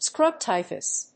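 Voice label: "scrub typhus", English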